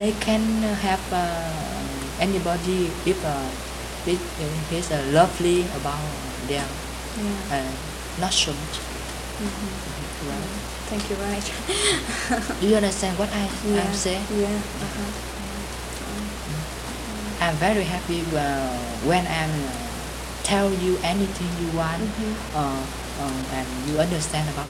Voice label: Conversation with 12 year old male Virginia, american english dialect